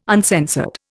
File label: "uncensored", Indian